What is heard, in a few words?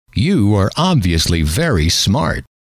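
you are obviously very smart, male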